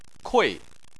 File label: "kui4", Chinese